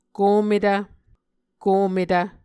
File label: "kometv", Muscogee